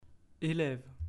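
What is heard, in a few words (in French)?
"eleve", French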